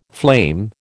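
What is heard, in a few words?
"flame", American English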